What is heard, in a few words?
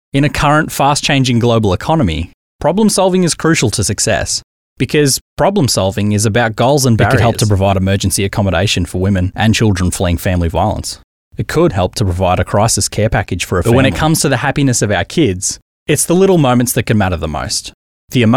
natural